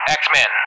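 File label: "Axeman", English; Callsign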